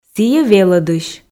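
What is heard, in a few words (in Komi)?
сійӧ велӧдысь, Komi, female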